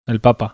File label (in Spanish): p el papa bizkaia